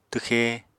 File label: "twxe", Apatani